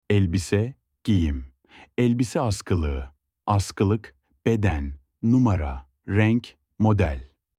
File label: clothes rack in turkish